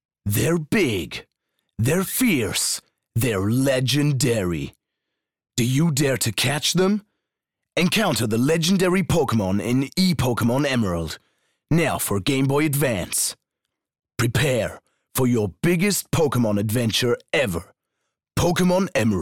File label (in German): uk englisch